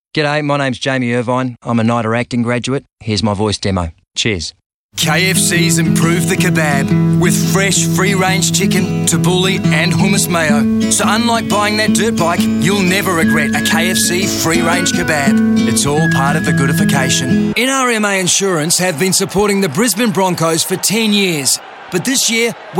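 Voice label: languages Australian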